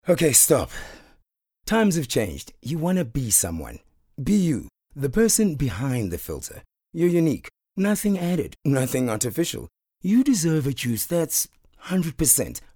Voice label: English Conversational